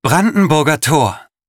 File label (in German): Ansage Brandenburger Tor